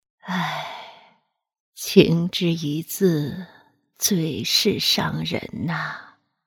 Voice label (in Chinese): 老年音